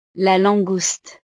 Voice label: "la langouste", frances

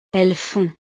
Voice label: "elles font", frances

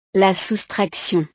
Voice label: "la soustraction", frances

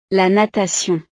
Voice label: "la natation", frances; deportes

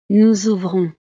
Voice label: "nous ouvrons", frances

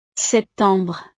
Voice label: "septembre", frances; los meses

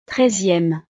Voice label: "treizieme", frances

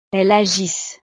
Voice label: "elles agissent", frances

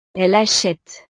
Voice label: "elle achete", frances

